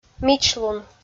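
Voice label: "мичлун" Komi, female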